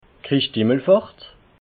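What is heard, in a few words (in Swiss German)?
"christi himmelfahrt", Alsatian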